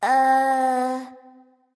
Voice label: confused; smite; scylla